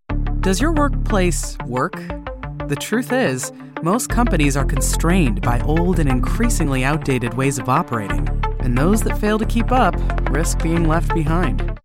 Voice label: Narration corporate direct conversational attitude gravitas workplace, Corporate Narration Voice Over; relatable